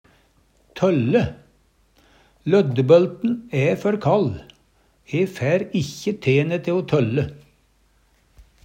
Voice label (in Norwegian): tølle, Numedalsmål; Verb; Handverk (metall, tre, lær); Løddebølten æ før kall, e fær ikkje tene te o tølle.